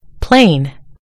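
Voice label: "plane", British English; gcompris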